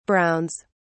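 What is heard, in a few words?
"browns", British English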